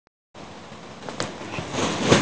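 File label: "qi3", Mandarin Chinese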